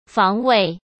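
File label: "防卫", Mandarin Chinese; chinese flashcards; hsk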